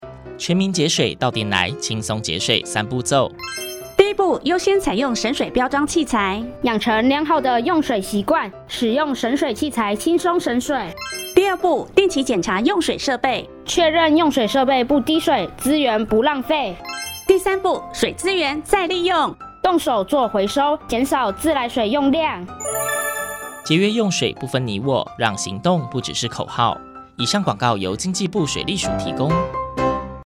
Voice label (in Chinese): 節水宣導輕鬆節水三步驟 國語; 經濟部